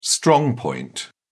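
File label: "strong point", British English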